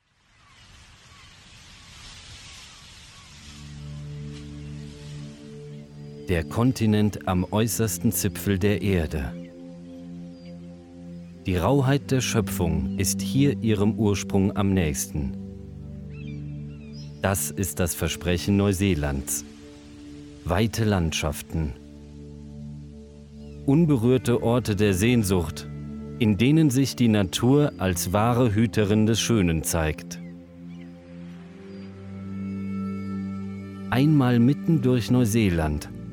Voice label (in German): Doku